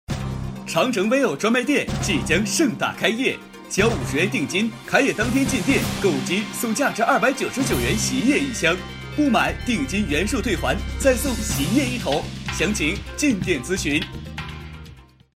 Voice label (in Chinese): 【促销】手机店开业男